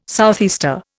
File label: "southeaster", Indian